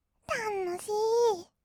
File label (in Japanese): "たのしー", kawaii voice; 1200 kawaii voice!!; 色々なワンショット